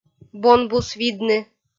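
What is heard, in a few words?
"бонбус видны", Komi-Zyrian, female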